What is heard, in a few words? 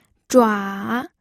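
"zhua3", Mandarin Chinese pinyin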